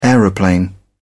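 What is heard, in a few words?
"aeroplane", English, uk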